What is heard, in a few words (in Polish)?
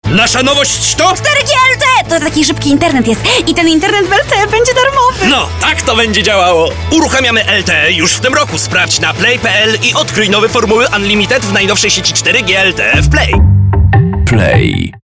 radio; play LTE i Formuly